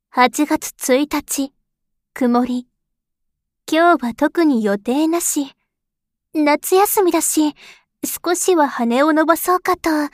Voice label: モノローグのみエコーあり

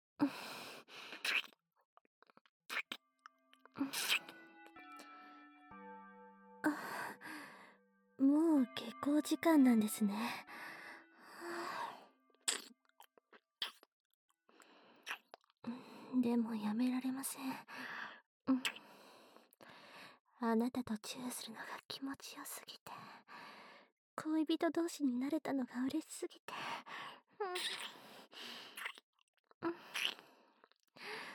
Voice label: こしょこしょ囁きながら, ASMR; 耳舐めしこしこ♪; むっつり巨乳生徒会長の淫乱囁き性演説～おちん媚びご奉仕生えっち～

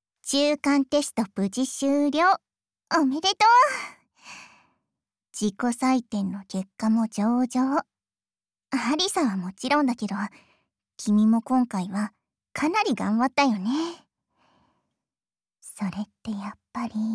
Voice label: ヒロインボイス; 低身長だけど爆乳で誘い受け上手なカノジョありさ

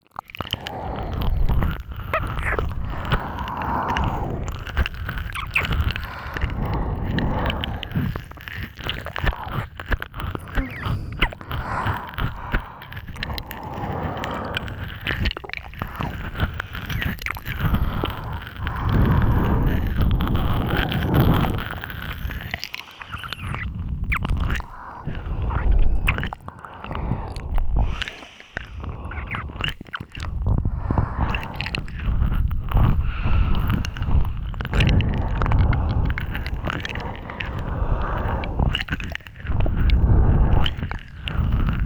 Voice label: まったりMIX両耳, ASMR

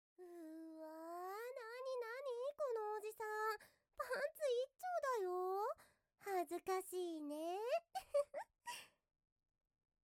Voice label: モブボイス, ASMR; ミカ

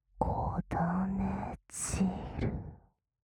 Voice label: 【左】子種汁, ASMR; 淫語

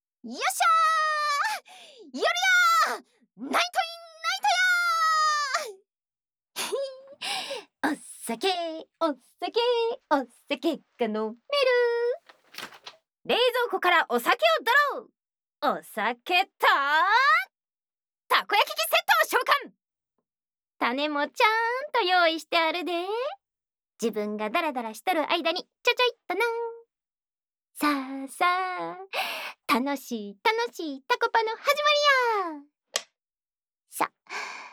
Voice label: 宅飲みは最高やな！, 関西弁; 宅飲みASMR; 女友達の家でだらだら ～202号室関西弁の同級生～

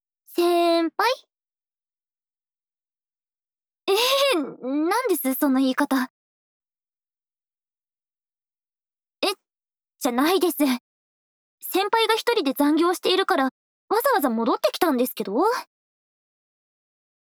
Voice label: ボイスのみ, ASMR; SEなし

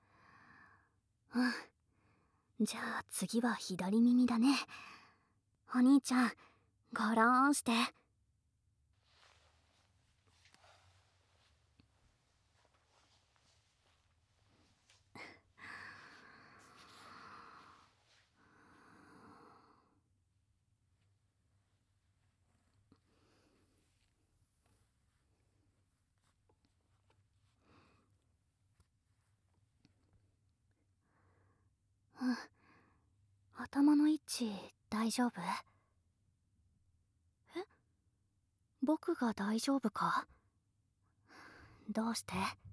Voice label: お兄ちゃん！左耳かき、するね？, ASMR, 弟, 安眠耳かき; お兄ちゃんのことがだぁ〜い好きな弟の安眠耳かき; 癒し

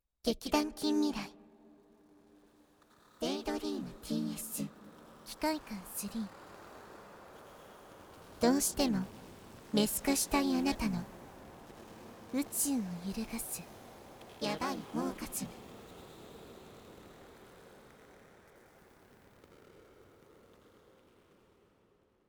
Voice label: タイトルコール; デイドリームTS機械姦Ⅲ

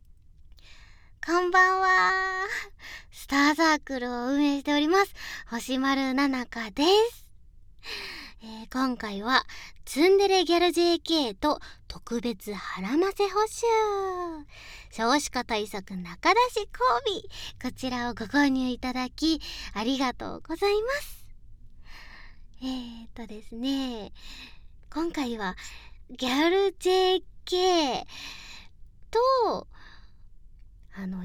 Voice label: フリートーク, ASMR; ツンデレギャルJKと特別孕ませ補習～少子化対策!中出し交尾～

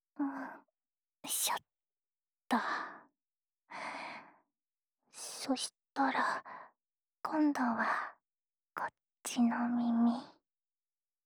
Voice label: 耳元で褒めてくれる ハグ・ささやき・左耳

